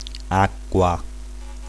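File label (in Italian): "acqua", Italian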